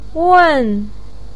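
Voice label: "wen4", Mandarin pinyin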